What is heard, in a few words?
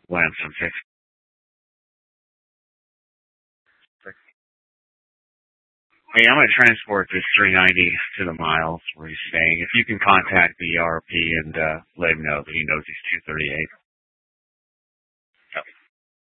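Police Department Dispatch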